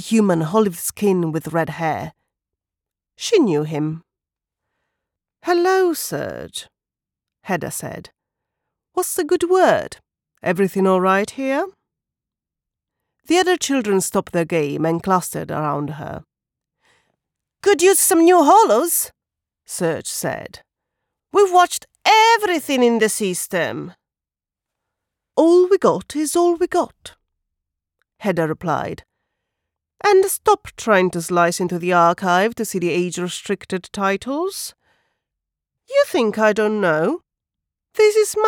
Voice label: Fiction English Sci fi Dialogue Kids Voice Adult Voice